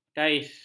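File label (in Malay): "kais", Malay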